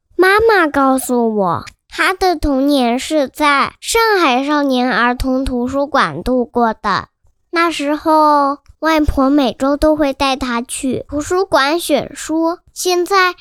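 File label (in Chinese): 男大音-男真童-少年儿童图书馆; 上海少年儿童图书馆; 真童配音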